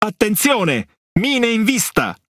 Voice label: CHAT MINE WARNING, IT; World Of Warships

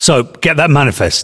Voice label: voiceovers UK

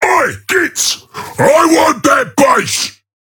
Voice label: Ork Quick Commands Enemy Base; Warhammer 40000

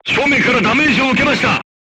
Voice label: voice message shot front, crew tanks japanese

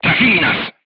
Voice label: voice message player tank hit, polish

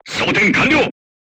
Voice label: voice message charged, crew tanks japanese

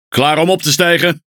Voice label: NL Speech Pilots Bomber Ready; World Of Warships

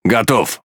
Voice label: Ru Speech Pilots Torpedo Ready; World Of Warships

